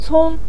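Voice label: "cong" Mandarin Chinese, neutral tone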